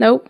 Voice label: "nope", drag

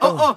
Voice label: "uh uh", Voice; ooh ahh

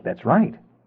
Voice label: that's right male

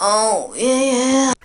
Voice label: "oh yeah" sexy

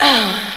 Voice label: "oh", Voice; ooh ahh

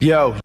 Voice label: "yo", Voice

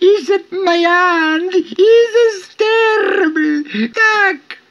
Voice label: isit myan isit barabee stok, gibbrish

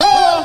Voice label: "ho" group; ooh ahh; ho hoo woo

